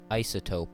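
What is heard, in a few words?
"isotope", US English; pronunciations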